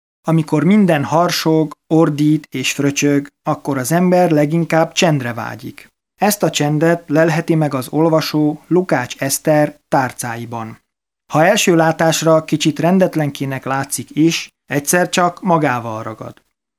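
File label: Male, Hungarian, documentary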